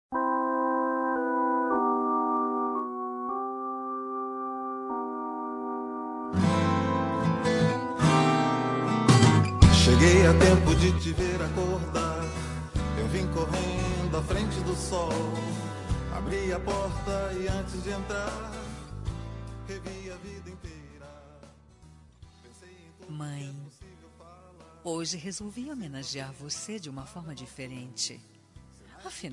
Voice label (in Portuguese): mae como mae fem, voz feminina; Dia dos Pais; para mae que e pai